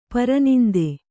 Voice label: "ಪರನಿಂದೆ", Kannada

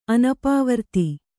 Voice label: "ಅನಪವರ್ತಿ", kannada

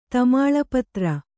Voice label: "ತಮಾಲಪತ್ರ", Kannada

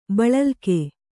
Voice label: "ಬಳಲ್ಕೆ", Kannada

